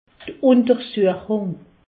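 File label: "untersuechung", Haut Rhin; medecine; examen médical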